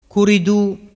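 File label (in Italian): "curidu", Vernazzese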